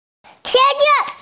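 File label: "eggplant", hearing impaired; qie2zi5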